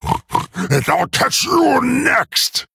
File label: Male Quilboar Play